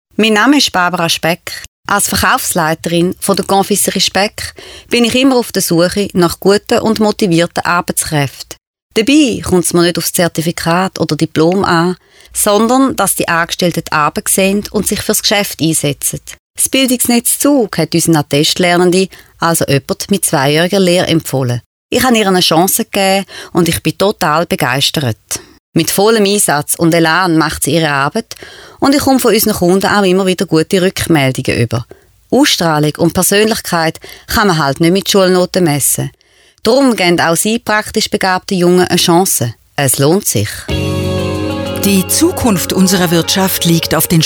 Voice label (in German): Radiospot; bildungsnetz